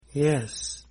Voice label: yes male